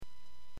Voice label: Aviation 122.7; KSTE

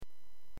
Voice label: Aviation 121.5 Emergency

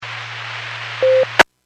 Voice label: Skywarn 146.67; Stevens Point

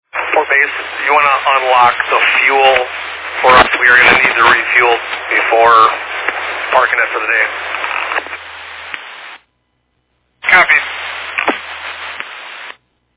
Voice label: Fire Dispatch

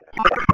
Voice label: Police Sheriff Dispatch

